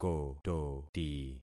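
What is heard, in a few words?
"kototi", concatenated syllables; Affixing experiment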